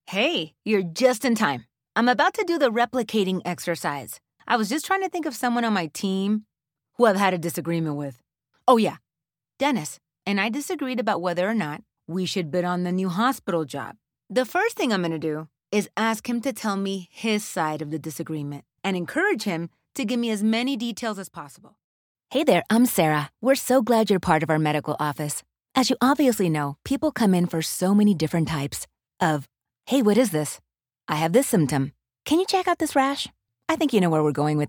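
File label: Latinx English E-Learning Videos, Female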